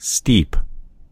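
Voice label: "steep", American English; gcompris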